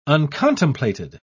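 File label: "uncontemplated", British English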